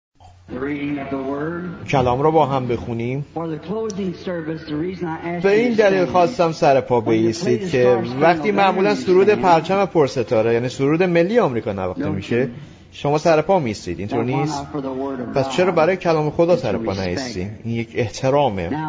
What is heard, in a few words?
Farsi Voice Over; The Token